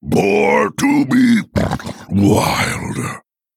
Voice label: Male Quilboar Play